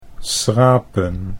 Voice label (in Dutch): "schrapen", Dutch; 'to scrape'